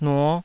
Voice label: "nuo5", Taiwanese